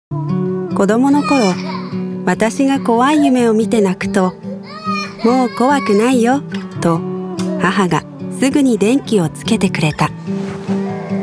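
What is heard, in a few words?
Commercial Warm and Refined, Voice Over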